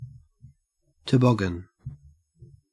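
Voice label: "toboggan", Australian English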